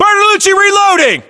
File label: inform reloading, US